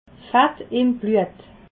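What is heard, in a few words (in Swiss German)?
"fett", Alsatian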